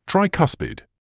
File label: "tricuspid", medical English